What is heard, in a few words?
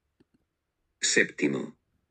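"septimo", Spanish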